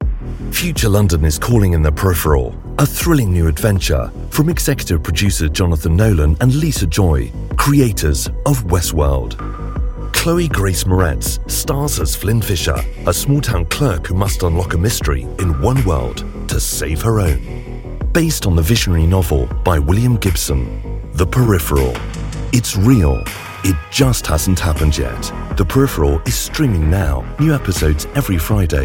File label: english british podcasts; Royaume-Uni; voix off britannique